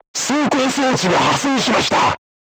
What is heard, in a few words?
voice message horizontal aiming drive damaged, crew tanks japanese